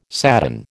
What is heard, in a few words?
"sadden", American